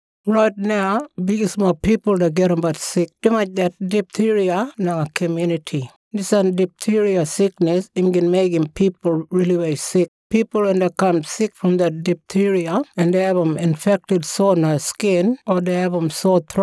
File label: westside kriol; diphtheria outbreak